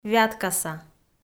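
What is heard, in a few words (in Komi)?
"вяткаса", Komi, female; audio dict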